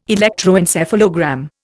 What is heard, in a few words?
"electroencephalogram", Indian